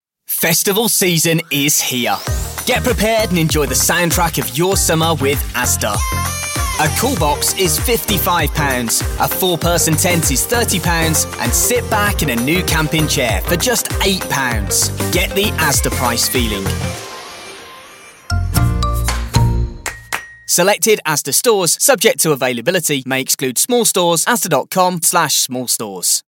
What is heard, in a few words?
in store promotion